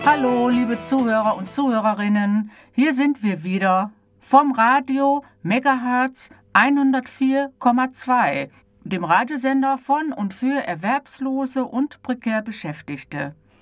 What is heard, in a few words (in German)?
ansage; megahartz